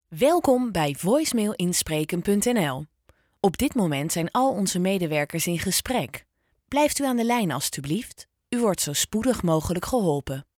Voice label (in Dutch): voicemail zakelijk fris